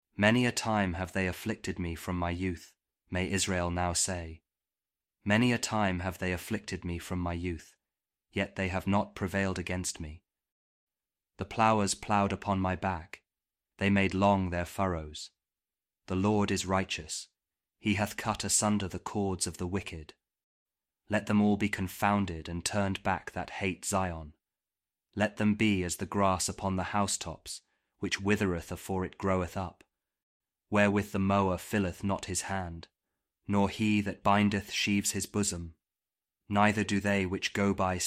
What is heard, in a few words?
psalm kjv king james audio bible